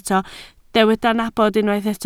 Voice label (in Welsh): "nabod", Welsh